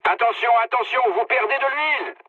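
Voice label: damaged oil, mood medium, French; War Thunder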